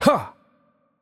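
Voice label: Korean attack light voice; bloom minigame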